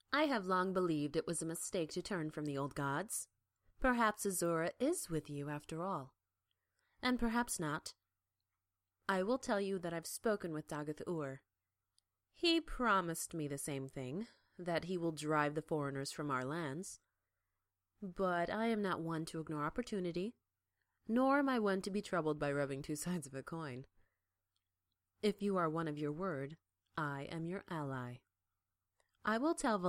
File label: dunmer female, dark elf voice auditions